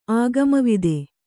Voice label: "ಆಗಮವಿದ", Kannada